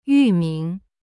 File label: "域名", Chinese; yu ming